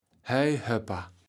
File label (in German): "Heuhöpper", Platt